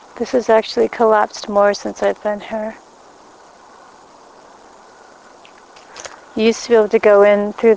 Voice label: child saying you dont care

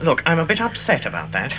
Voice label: upset

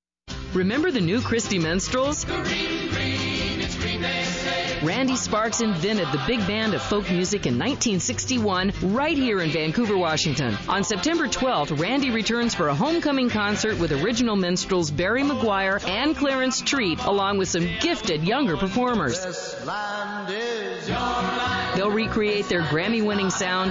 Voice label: radio spot

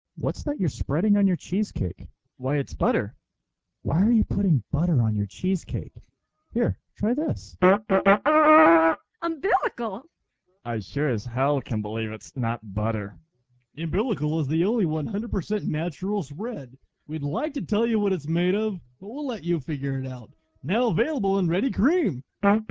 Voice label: Retro Commercial; Umbilicle Margarine

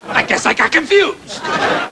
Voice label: confused; seinfeld

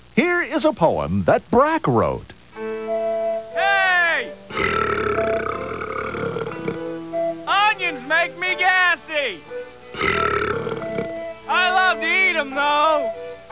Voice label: poem

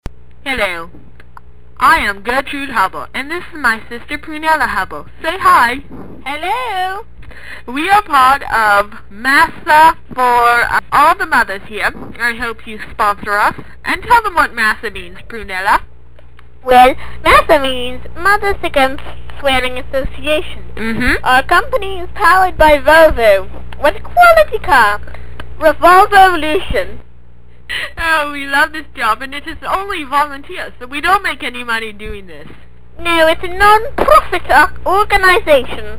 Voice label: Commercial; masa volvo

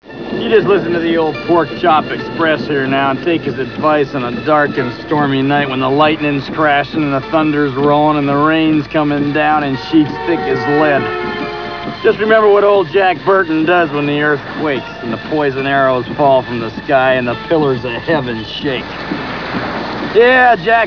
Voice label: Monologue

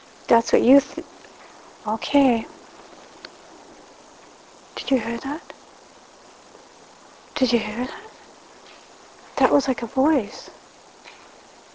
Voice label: childs voice

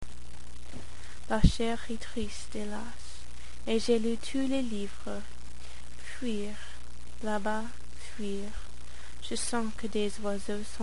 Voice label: poem

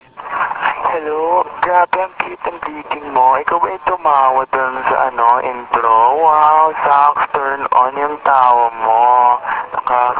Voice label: cute greet

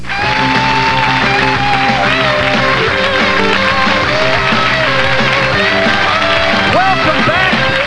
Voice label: intro from commercial

